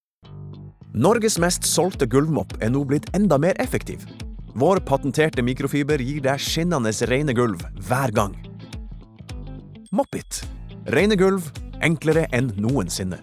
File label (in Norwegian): no reklame